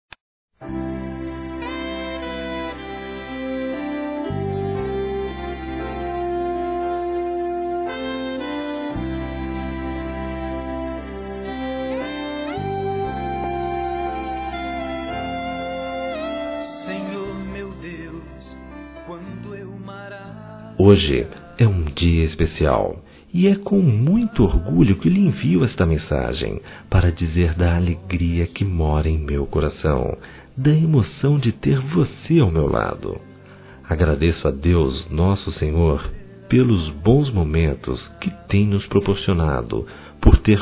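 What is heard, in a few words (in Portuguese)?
EVANGELICO, Masculino; Telemensagens; Dia Dos namorados; Telemensagens 2017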